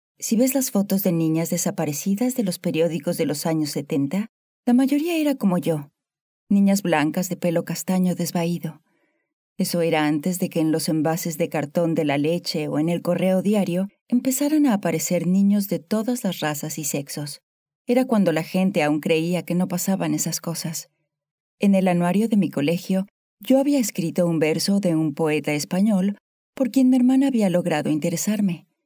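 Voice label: Spanish Latin America female narrative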